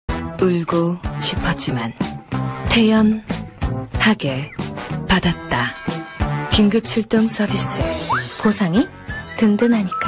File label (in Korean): 시크한 30대; 현대해상